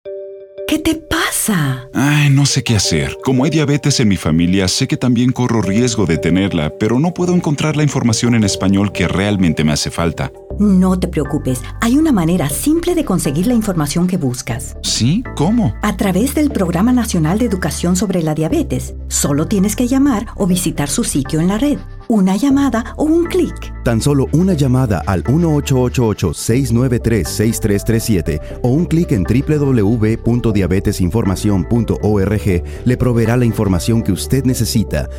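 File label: radio spanish; diabetes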